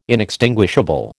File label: "inextinguishable", American